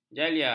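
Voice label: "jalia", Malay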